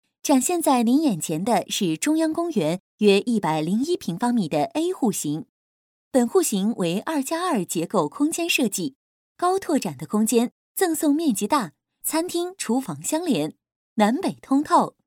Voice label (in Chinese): 女, 房地产, 户型解说; 中央公园